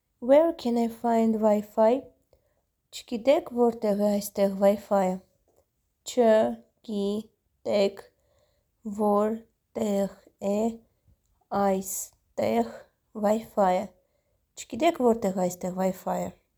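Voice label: English "Where can I find Wi-fi", Armenian "Chgitek vortegh e aystekgh Wi-Fiy"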